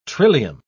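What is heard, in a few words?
"trillium", British English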